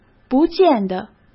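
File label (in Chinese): "不见得", Mandarin Chinese; hsk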